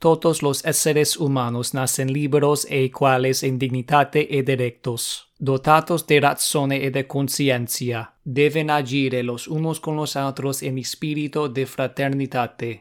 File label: udhr neolatin